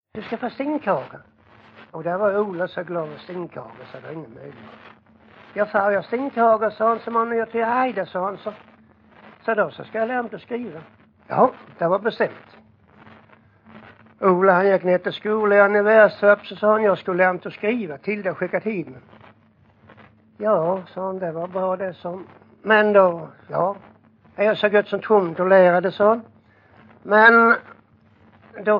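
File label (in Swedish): Jämshög Blekinge, dialekter; Folkminnen; Svenska sagor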